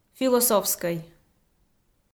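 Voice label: "философскӧй", Komi, female; kpv audio dict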